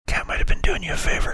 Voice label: whispering